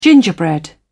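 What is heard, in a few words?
"gingerbread", British English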